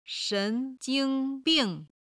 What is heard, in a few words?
"shen2jing1bing4", Chinese